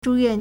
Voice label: "zhu4 yuan4", Chinese